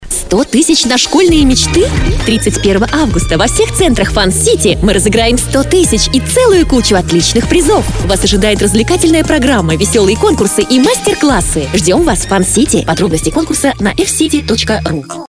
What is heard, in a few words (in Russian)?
Задорня подача; voices female